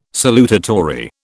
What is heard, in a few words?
"salutatory", British